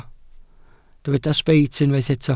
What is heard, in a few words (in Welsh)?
"sbeit", Welsh